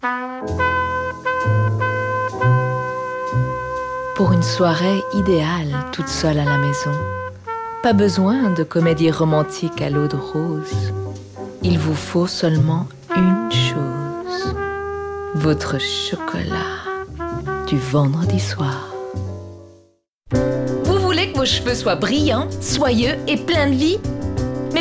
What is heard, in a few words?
french european female e learning